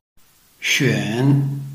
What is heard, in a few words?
"xuan3", Chinese